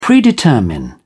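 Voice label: "predetermine", British English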